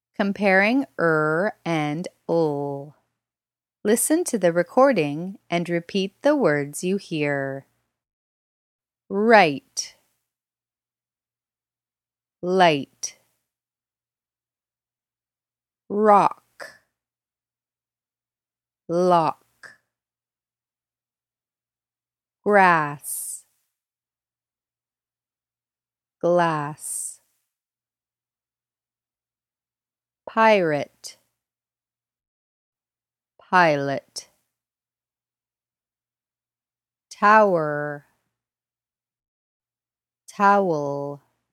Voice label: Pronunciation Practice